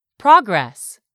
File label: "PROGRESS", English; 3000 words